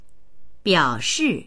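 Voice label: "biao3shi4", Chinese; 表示; 表示する、示す、表す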